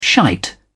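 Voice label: "shite", British English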